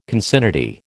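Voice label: "concinnity", American English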